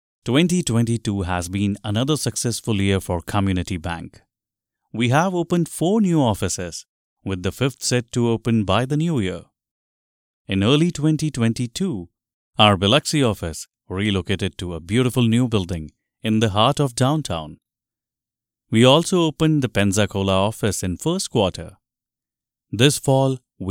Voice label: english indian corporate videos